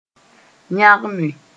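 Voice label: "yãgme", Mossi; amalgamate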